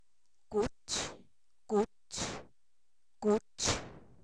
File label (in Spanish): marrano, Zapoteco, san miguel albarradas; animales; INALI